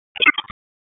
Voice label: Police North District Tac 1, p25; Raleigh